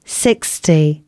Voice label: announcements, female